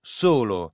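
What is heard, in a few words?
"solo", Italian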